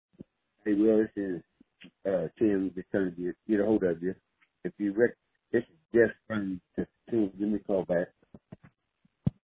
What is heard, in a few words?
Voicemail